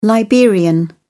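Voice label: "liberian", British English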